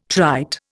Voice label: "trite", Indian